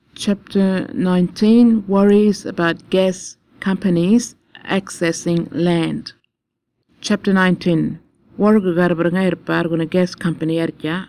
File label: burarra; fracking inquiry